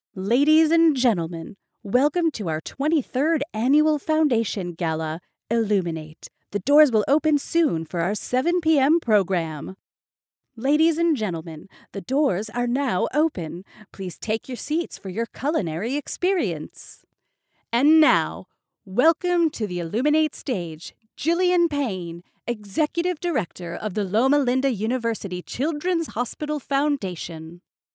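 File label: English American, female, voice over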